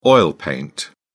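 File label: "oil paint", British English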